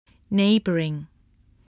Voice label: "neighboring", voice